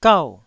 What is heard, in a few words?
"gau4", Taiwanese